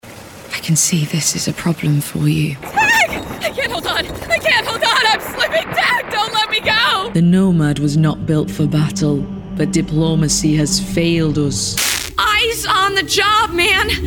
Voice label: english british video games; voice actors

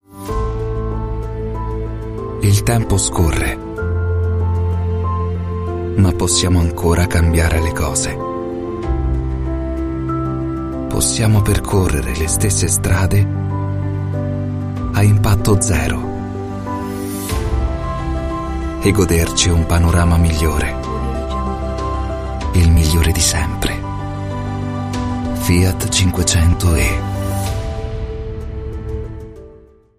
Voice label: italian narration

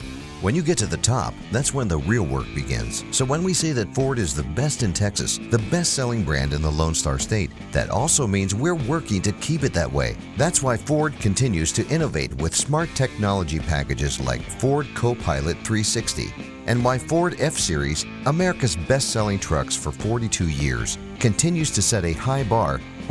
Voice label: english american automotive

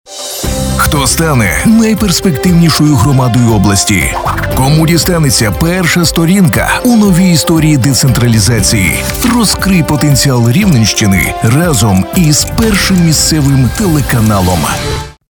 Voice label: ukrainian tv shows

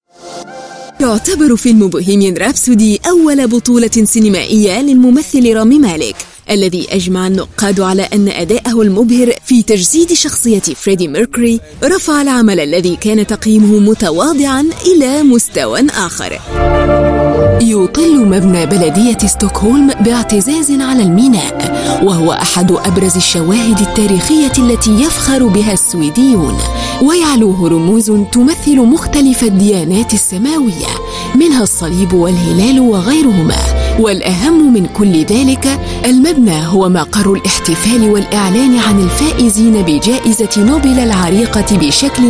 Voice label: arabic tv shows

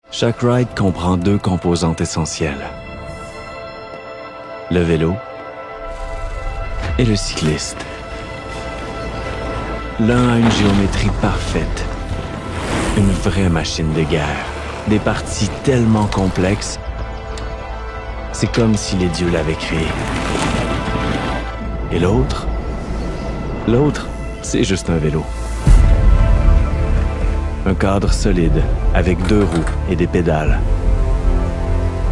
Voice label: french canadian movie trailers

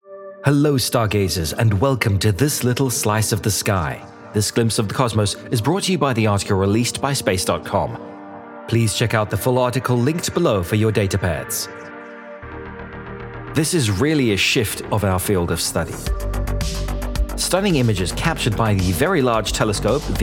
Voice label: English British explainer videos

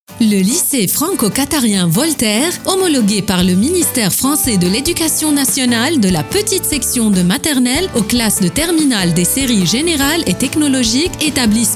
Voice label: french commercial

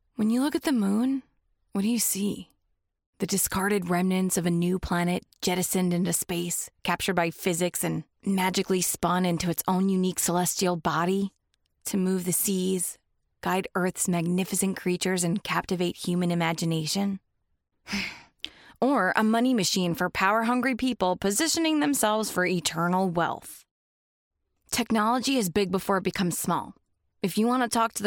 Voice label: english american podcasts

